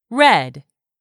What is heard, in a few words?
"red", english; 3000 words